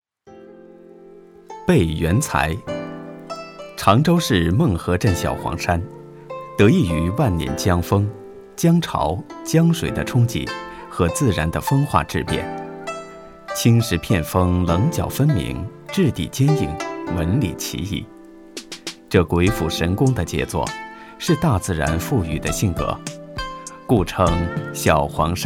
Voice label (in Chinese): 男 讲述